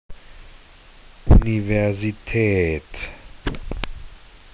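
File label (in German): "Universitaet", German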